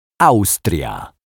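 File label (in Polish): "Austria", Polish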